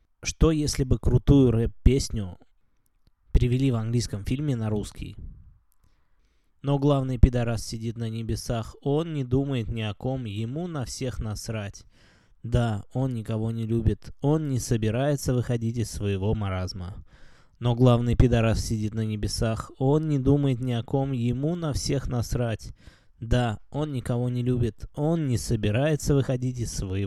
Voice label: Shure 55SH